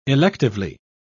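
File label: "electively", British English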